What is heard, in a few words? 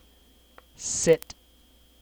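"sit", English syllable; kdeedu; klettres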